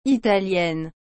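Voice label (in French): "italienne", French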